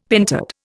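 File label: "pentad", Indian English; Dictionary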